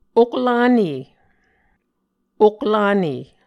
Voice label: "oklane", Muscogee